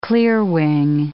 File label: "clearwing", English